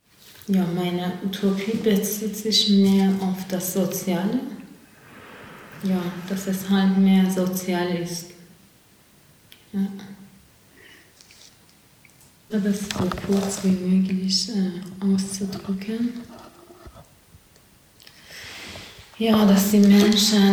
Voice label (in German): persisch